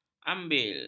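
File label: "ambil", Malay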